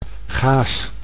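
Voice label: "gaas", Dutch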